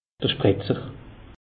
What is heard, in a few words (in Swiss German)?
"spretzer", alsace; meteo